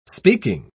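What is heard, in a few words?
"speaking", English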